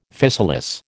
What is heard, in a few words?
"physalis", American